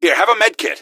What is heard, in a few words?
health, gasmask; scmod